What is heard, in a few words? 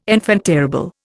"enfant terrible", Indian